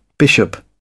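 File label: "bishop", British English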